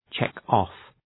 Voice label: "check off", English